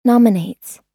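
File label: "nominates", American English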